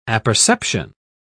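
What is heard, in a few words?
"apperception", British English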